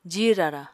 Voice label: "jihi rara", apatani

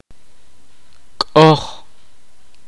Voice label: kaqchikel; Mask

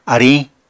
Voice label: "ariñ", Apatani

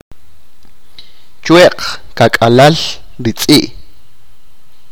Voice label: tomorrow the dog will run, achi

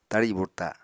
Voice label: "tari burta", apatani

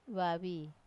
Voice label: "vavi", woman; wamesa

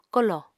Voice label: "kolò", Apatani

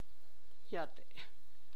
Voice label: "very tall", tlacochahuaya

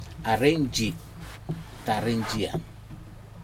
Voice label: arinji tarinjia, very strong, Aneityum

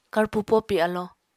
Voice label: "karpo-popi alo", Apatani

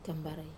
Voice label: "kambarai", not want, Wamesa

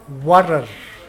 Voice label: "start boiling", Mokilese

